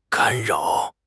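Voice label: "干扰", Mandarin Chinese; HSK; HSK6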